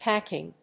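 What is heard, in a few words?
"packing", English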